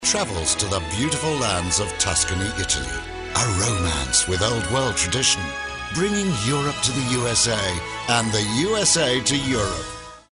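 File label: british classy announcer clean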